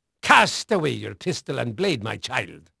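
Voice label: Dutch male, voice; Pirates Of The Caribbean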